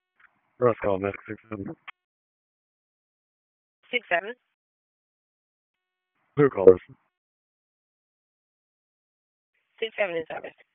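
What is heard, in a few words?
EMS DISP, radio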